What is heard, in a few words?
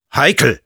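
Sniper jeers, German; Heikel!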